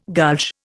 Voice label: "gulch", Indian